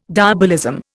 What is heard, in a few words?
"diabolism", Indian